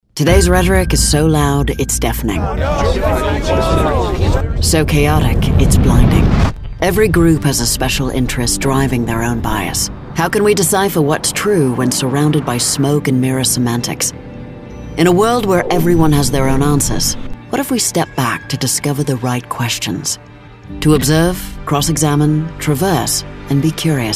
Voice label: British Corporate